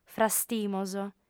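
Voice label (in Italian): "frastimos", lingua sarda, female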